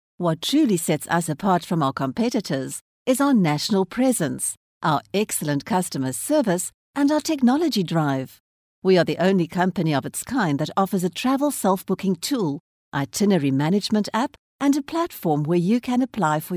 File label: english south african corporate videos